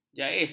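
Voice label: "jais", Malay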